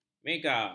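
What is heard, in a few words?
"mega-", Malay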